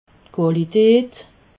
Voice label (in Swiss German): "qualitat", alsace